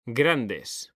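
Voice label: "grandes", Spanish, male; mekanta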